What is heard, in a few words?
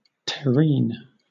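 "terrene", British; pronunciations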